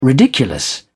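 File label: "ridiculous", British English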